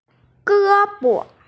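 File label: "ge1bo5", Mandarin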